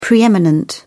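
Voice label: "pre eminent", English, British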